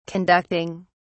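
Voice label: "conducting", British English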